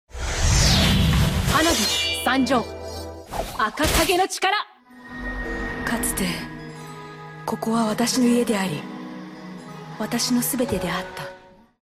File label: japanese video games